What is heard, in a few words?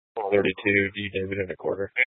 Police Dispatch